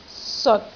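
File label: "seot6", Cantonese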